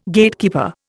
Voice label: "Gatekeeper", Indian